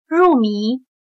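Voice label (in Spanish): "入迷", chino mandarin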